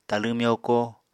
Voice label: "talẃ myoko", Apatani